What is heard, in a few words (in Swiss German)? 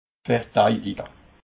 "verteidige", alsace